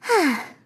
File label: healed, RPG Voice; RPG Voice Starter Pack